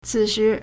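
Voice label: "cǐshí", Chinese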